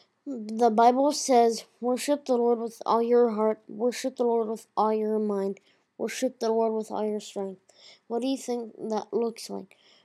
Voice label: the bible says, voice over; Shema Worship